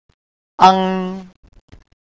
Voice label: "eng" Mandarin